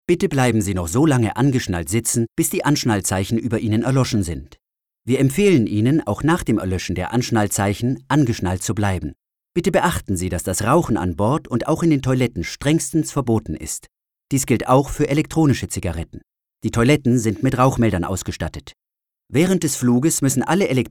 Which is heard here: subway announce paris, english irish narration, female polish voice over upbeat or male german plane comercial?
male german plane comercial